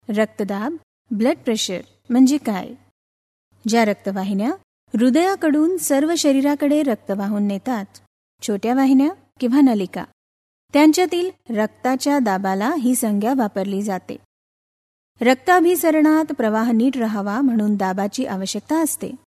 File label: Marathi